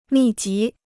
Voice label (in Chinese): "密集", Chinese